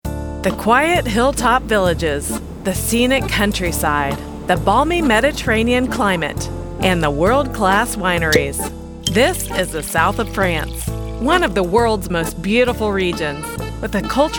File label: Narration